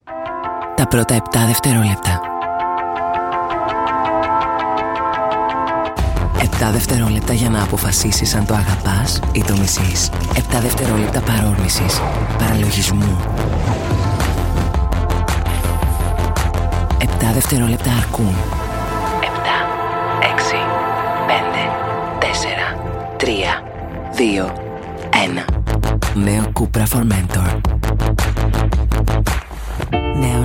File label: Greek female voice, commercial